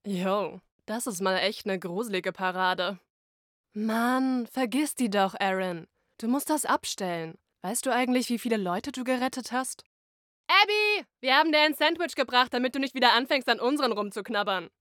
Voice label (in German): Synchron cool gleichgueltig